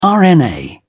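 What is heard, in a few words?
"rna", British English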